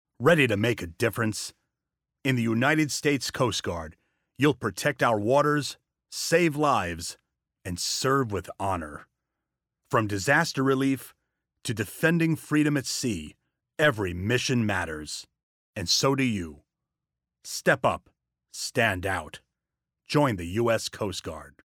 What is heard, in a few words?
Commercial; USCG